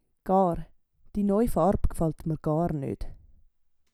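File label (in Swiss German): "gaar", Swiss German; Wortschatz Kapitel 8; Kapitel 8 Zusatzwortschatz 4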